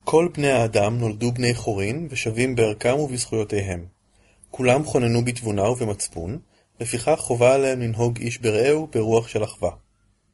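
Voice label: Hebrew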